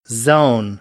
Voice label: "zone", American, English; oʊ - əʊ